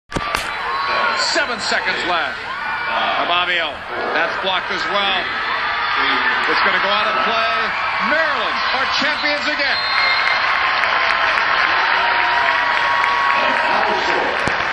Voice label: soccer title announcement; 2008 ncaa soccer championship